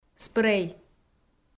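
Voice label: "спрей", Komi-Zyrian, female; audio dict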